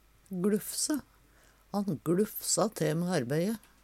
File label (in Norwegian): gluffse, Numedalsmål; Verb